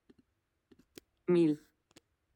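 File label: "mil", Spanish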